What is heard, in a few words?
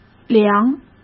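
"量", Mandarin Chinese; hsk; chinese flashcards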